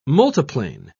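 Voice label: "multiplane", English, British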